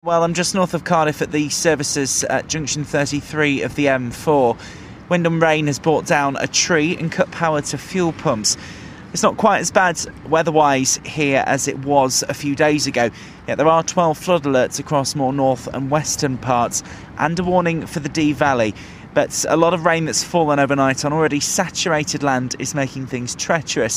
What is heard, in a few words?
reporting from a wet and windy service station on the M4; Real Radio; near Cardiff